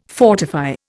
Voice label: "fortify", Indian

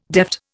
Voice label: "deft", Indian

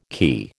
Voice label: "quay", American

